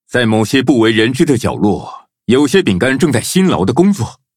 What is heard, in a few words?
levelup zh-hant; cookie run